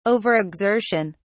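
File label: "overexertion", British English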